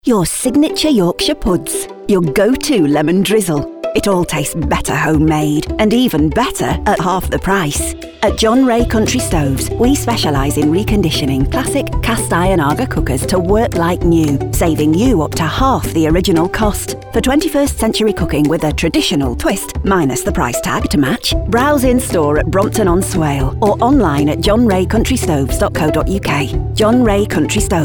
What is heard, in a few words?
female english northern accent commercial; english northern accents; john wray country stoves